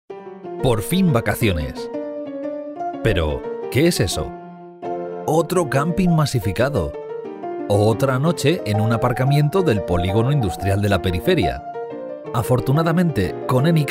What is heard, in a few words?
Spanish Castilian